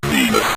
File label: "venus", synth voice; Kenney's Sound Pack